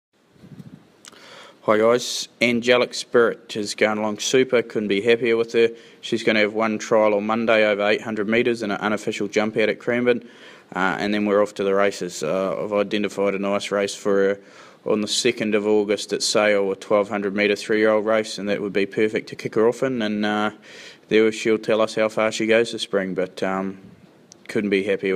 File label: voice note; Angelic Spirit NZ